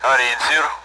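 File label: Speech Sound Russian Common AWACS Messages; bulls; DCS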